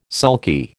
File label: "sulky", American